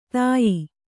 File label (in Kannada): "ತೇಯು", Kannada